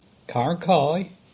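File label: "Carnkie" English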